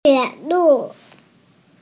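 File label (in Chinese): "tie3lu4", Mandarin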